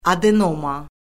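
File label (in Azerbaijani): "adenoma", Azerbaijani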